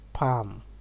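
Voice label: "pam", Nigerian Pidgin, male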